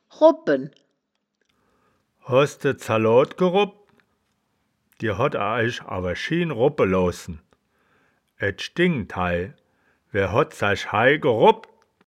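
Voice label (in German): "robpen", wittlicher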